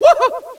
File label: whoha, Echo inside caves, underground; DS; ML BOWSERSINSIDE; Mario